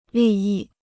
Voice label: "利益", Chinese

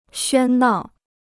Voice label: "喧闹", Chinese

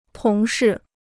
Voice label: "同事", Chinese

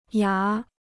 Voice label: "牙", Chinese

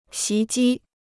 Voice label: "袭击", Chinese

